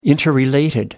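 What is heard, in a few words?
"interrelated", British English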